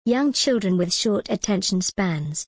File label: en gb young children with short attention spans